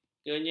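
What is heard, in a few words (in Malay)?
"kenyir", Malay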